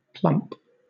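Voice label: "plump", British English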